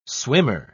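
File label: "swimmer", British English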